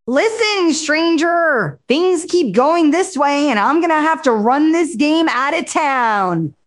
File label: upset; beth; fertig classic games